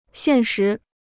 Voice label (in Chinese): "现实", Chinese